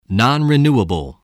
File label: "non-renewable", voice